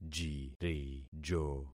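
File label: "jirijo", concatenated syllables; Affixing experiment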